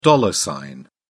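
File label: "dollar sign", British English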